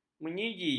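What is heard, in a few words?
"menyigi", Malay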